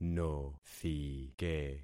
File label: "nothike", concat syllables; Affixing experiment